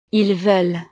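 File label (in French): "ils veulent", French; Ellos quieren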